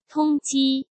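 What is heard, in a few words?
"通缉", Mandarin Chinese; hsk; chinese flashcards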